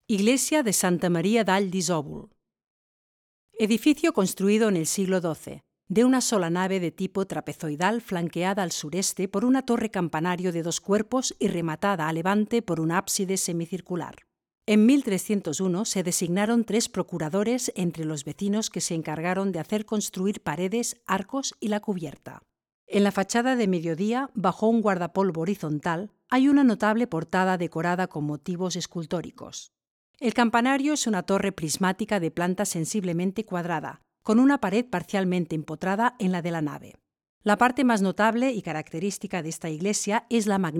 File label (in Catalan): locucio castella